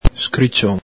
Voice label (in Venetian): "scrición", Veneto; finch; el Galepin; fringuello